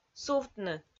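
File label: "сувтны", Komi-Zyrian, female; audio dict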